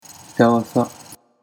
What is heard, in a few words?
"itawasa", Japanese